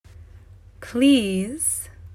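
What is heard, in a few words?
"Please", English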